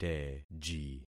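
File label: "teji", concatenated syllables; Affixing experiment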